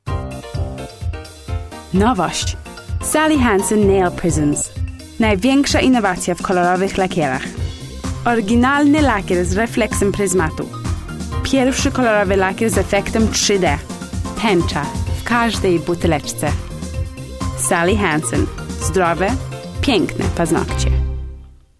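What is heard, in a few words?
Polish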